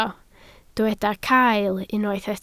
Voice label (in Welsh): "cael", Welsh